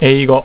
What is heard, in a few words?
"English", japanese